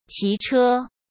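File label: "骑车", Mandarin Chinese; chinese-flashcards; hsk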